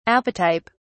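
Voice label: "apotype", British English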